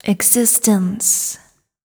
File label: fem spo, Vocals